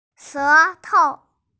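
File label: "she2tou5", Mandarin Chinese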